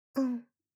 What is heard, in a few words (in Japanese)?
うん…, システムボイス; Windows等向けシステムボイス